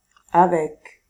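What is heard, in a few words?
"avec", French; famille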